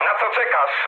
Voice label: attack position mood low, speech pol; War Thunder

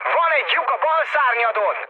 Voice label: left wing, speech hun; War Thunder

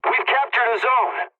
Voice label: pilot capture zone ally, english; War Thunder

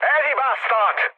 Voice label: bomb failure, speech hun; War Thunder

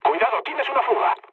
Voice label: damaged water, mood med, speech; War Thunder

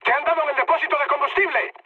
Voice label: damaged oil, mood high, speech; War Thunder

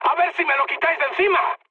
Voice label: aircraft damaged, mood high, speech; War Thunder

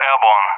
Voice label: "airborne", ENG; Messages; Allied Flight; DCS

